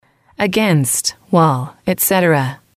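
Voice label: "against", English, female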